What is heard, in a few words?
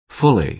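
"fully", American English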